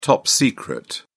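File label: "top secret", British English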